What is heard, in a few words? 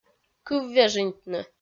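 "кыввежиньтны", Komi, female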